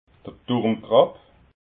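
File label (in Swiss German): "turmkrapp", Alsatian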